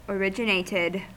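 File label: "originated", American English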